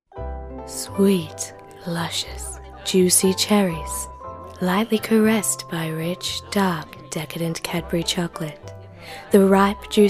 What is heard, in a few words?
Voice Over